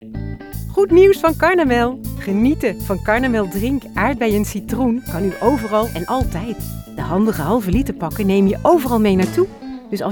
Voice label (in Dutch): commercials